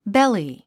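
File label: "belly", English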